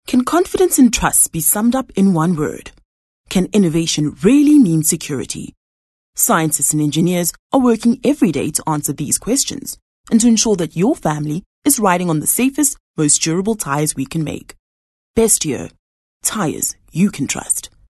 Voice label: English corporate